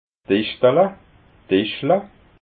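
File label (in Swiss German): "dischdala", Alsatian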